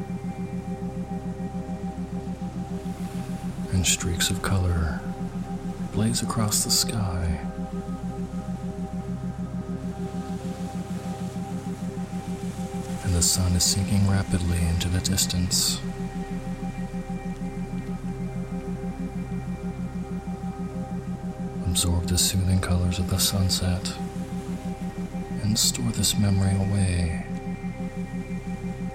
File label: Healing with gratitude With Isochronic Tones